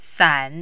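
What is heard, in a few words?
"san", Mandarin, third tone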